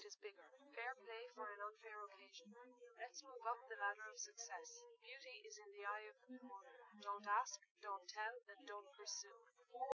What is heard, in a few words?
female, live rec; SiSEC08; SiSEC underdetermined